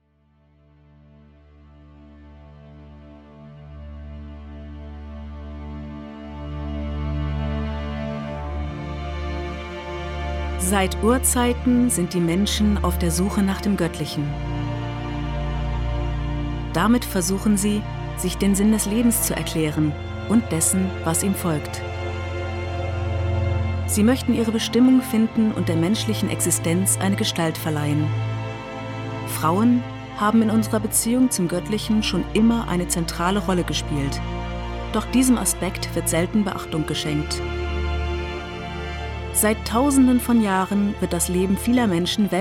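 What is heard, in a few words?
German General; GoLocalise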